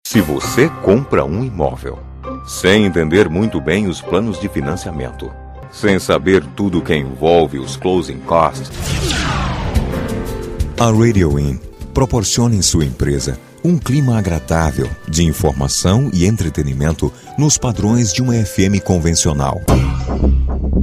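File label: Portuguese male voice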